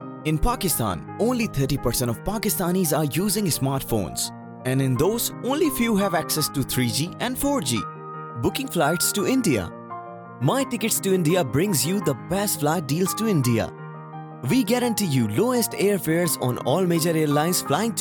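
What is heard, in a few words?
HI AccEN, Hindi accented English; GoLocalise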